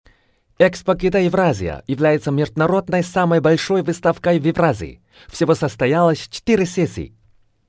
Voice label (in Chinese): 俄语样音